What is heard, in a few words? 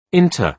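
"into", British English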